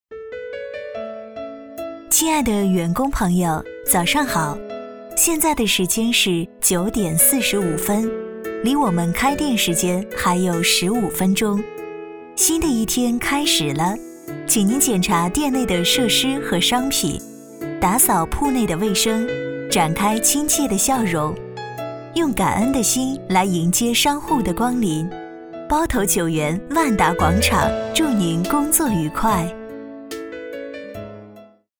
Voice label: 女 多媒体 广播提示 商场广播; 万利商场广播

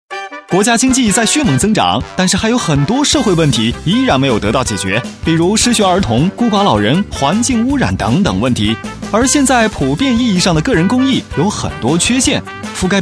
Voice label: 男 宣传片 幽默调侃; 病毒; 个人公益Flash

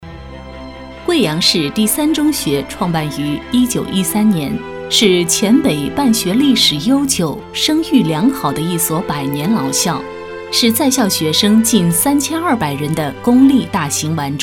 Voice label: 专题 学校 稳重; 贵阳第三中学

